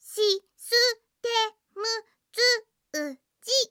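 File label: Japanese anime girl voice sounds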